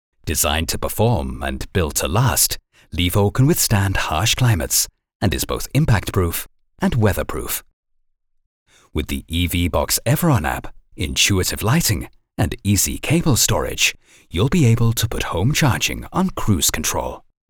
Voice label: European English